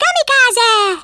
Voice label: "kamikaze", Hungarian; Worms Armageddon